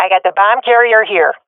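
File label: sees bomb carrier, swat female; vo; Counter Strike Model Pack; CS:GO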